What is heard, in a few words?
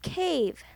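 "cave", English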